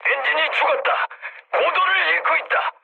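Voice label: Korean damaged engine mood med; speech; War Thunder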